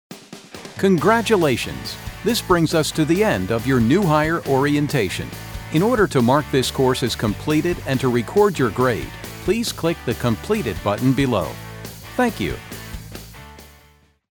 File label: eLearning Excited Enthusiastic Over the Top